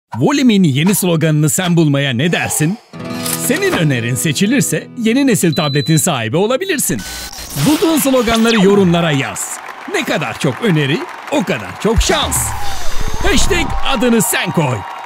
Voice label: Turkish promos

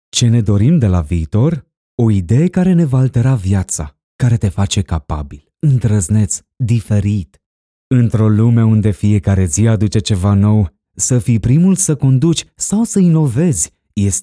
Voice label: romanian narration

